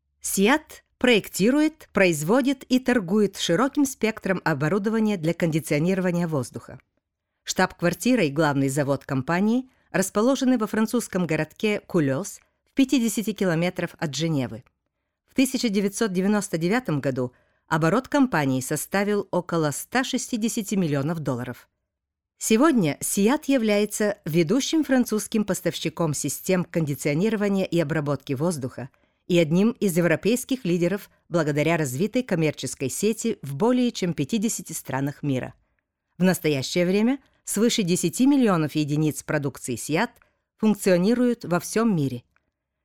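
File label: russian female narration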